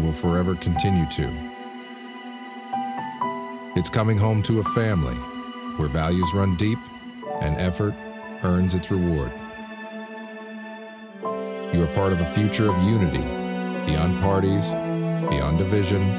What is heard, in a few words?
America Army Voice Over; This is America Army